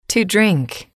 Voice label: "to drink", English

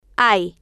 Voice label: "hay", Spanish

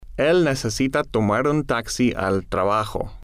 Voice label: el Necesita Tomar Un Taxi Al Trabajo, Spanish

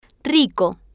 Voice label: "rico", spanish

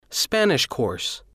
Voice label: spanish Course

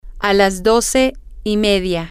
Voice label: sp at Half Past Twelve, F

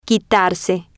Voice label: "quitarse", Spanish

